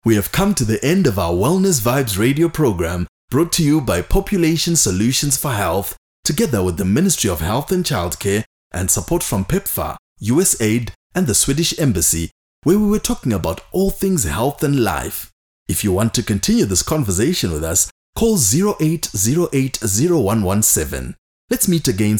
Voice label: English closing billboard